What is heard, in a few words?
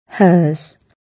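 "hers", British English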